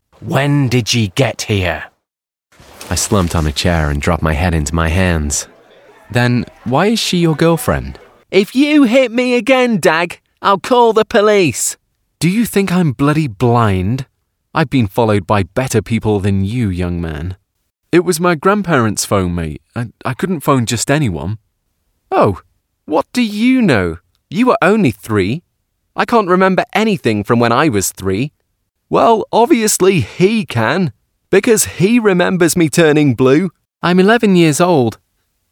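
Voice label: characters, British Professional Male Voiceover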